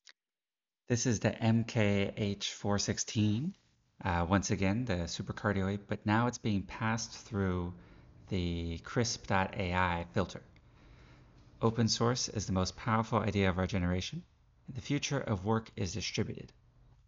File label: sennheiser mkh416